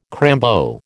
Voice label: "crambo", American

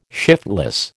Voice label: "shiftless", American

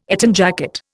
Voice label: "Eton jacket", Indian

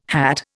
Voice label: "hat", Indian

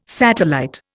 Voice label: "satellite", Indian

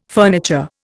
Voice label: "furniture", Indian

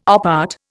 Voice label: "op art", Indian

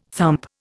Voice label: "thump", Indian

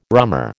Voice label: "rummer", American

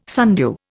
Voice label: "sundew", Indian

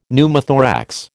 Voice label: "Pneumothorax", American

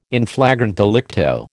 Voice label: "in flagrante delicto", American

